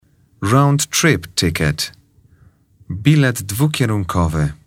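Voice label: "roundtrip ticket", English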